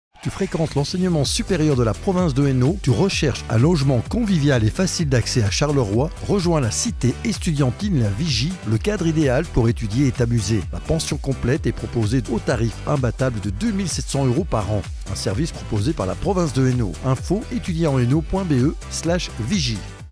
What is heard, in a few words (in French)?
Spot Radio; Contact Vigie